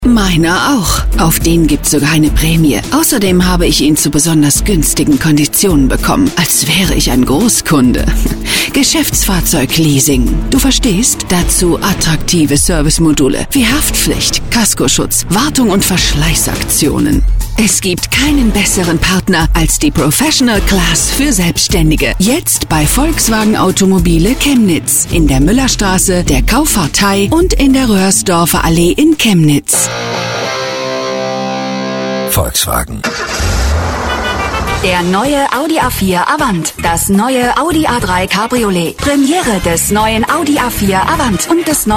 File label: COMMERCIALS